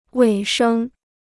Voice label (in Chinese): "尾声", Chinese